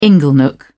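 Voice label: "inglenook", British English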